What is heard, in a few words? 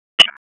Police Northwest District Tac 1, p25; Raleigh; wake